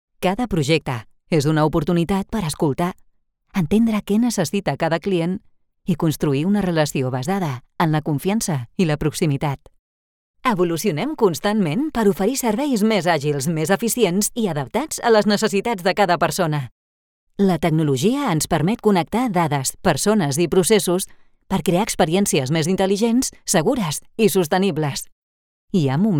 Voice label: Catalan VO Mix